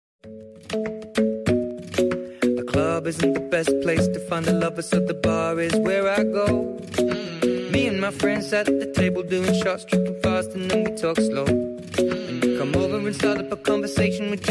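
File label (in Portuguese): aniver irma masc, Telemensagens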